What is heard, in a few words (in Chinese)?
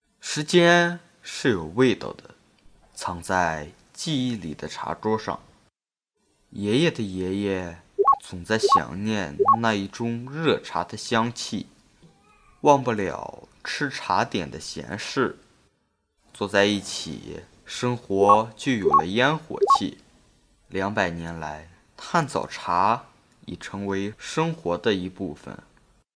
少年; 真童配音